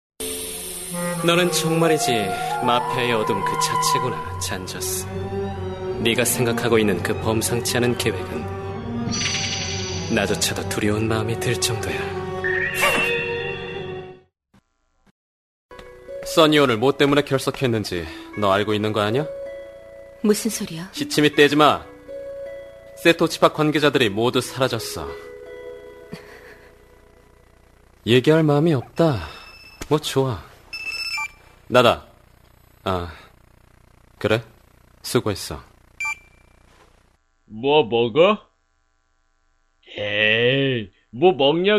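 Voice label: Korean, male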